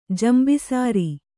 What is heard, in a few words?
"ಜಂಬಿಸಾರಿ", Kannada